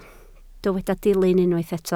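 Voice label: "dilyn" Welsh, spoken word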